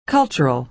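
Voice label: "cultural", American English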